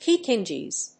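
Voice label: "Pekingese", English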